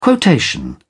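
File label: "quotation", English, uk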